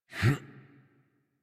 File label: Horse Dismount Adult; Warframe; Duviri